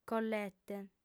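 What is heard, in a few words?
"collete", Sardinian, female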